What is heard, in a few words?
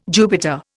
"Jupiter", Indian